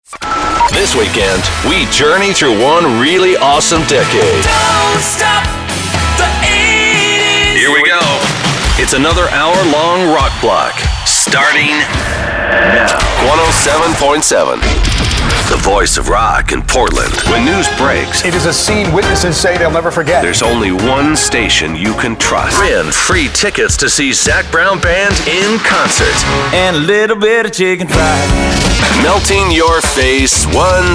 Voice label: Radio Imaging